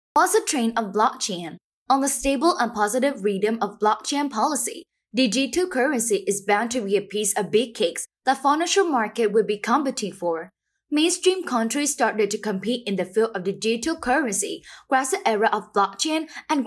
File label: English (Asian), Female